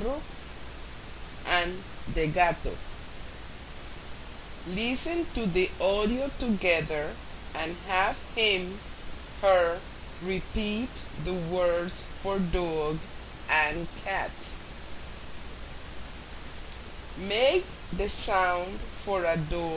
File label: Dogs and Cats Parent Toddler Spanish